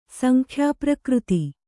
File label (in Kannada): "ಸಂಖ್ಯಾಪ್ರಕೃತಿ", Kannada